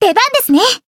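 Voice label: Voice chant, Japanese; violet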